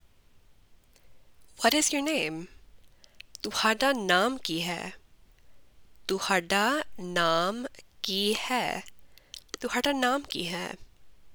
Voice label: Punjabi What is your name

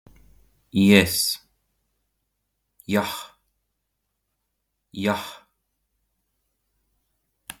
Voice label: Yes, Estonian phrasebook; the talking estonian phrasebook

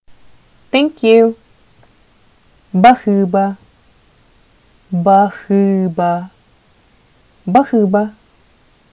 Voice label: Thank ou, Sakha

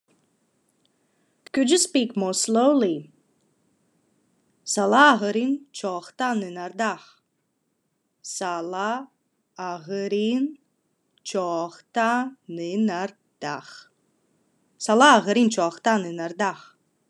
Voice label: Khakas Could you speak more slowly

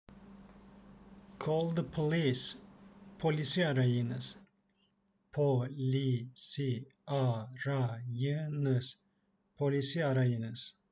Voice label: call the police, turkish; the talking turkish phrasebook

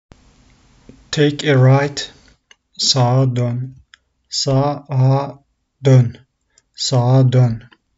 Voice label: take a right, turkish; the talking turkish phrasebook

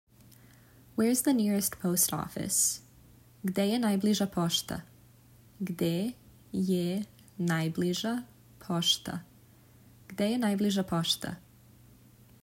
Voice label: Where is the nearest post office, serbian

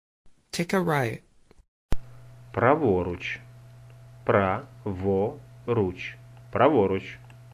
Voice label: UKR Take a right

